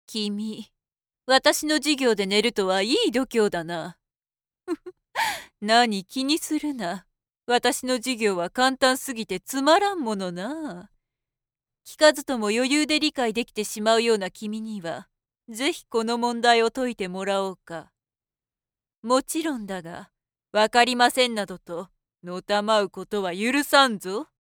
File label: female